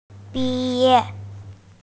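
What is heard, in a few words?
"bi4ye4", Mandarin Chinese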